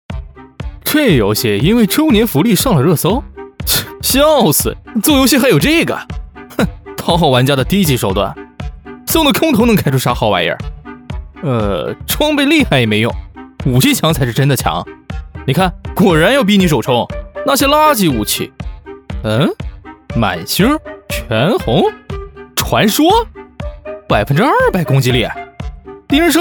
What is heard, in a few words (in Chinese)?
信息流年轻素人